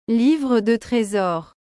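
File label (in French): "livre de tresor", French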